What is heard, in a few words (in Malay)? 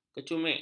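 "kecumik", Malay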